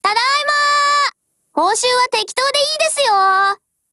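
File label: OPERATION OVER, Japanese; GF Alarm; M1918Mod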